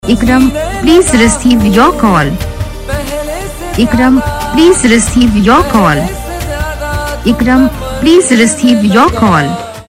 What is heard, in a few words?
please receive call saying, Ringtones; Your Name Ringtones A To Z Names